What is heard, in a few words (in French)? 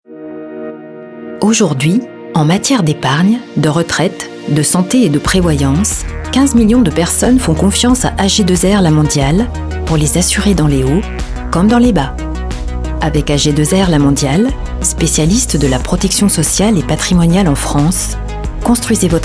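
PUB, Voix off; AG2R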